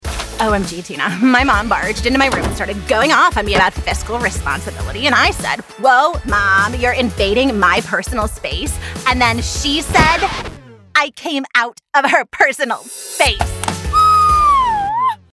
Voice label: Fast Talking Teen

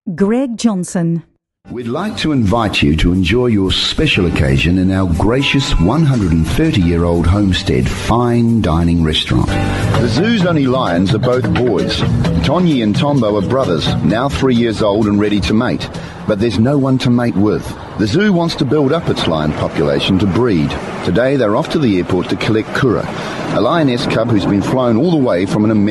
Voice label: Corporate; BigMouth Voices

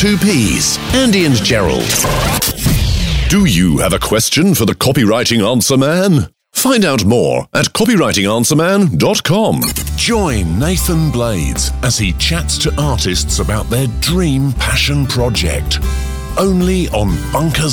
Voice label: Podcast intros, british rp natural

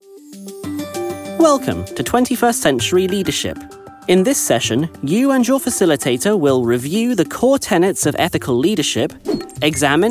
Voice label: Corporate

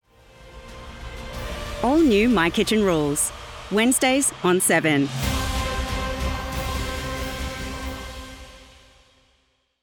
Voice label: commercial, promo, tv promos; warm/friendly

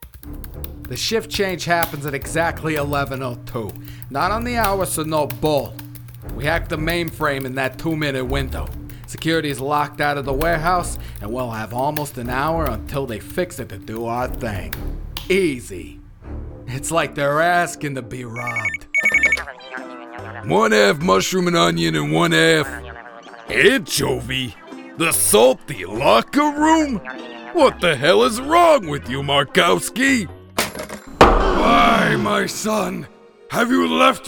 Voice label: Character

